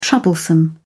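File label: "troublesome", British English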